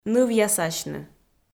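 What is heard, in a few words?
"нывъясасьны", Komi, female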